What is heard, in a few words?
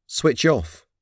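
"switch off", English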